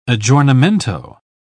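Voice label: "aggiornamento", British English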